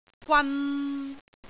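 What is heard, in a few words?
"gwan3", Cantonese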